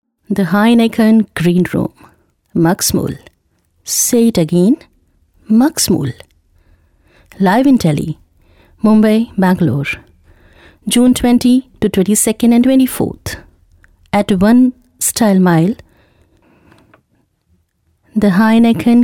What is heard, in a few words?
FVO